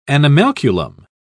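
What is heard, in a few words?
"animalculum", British English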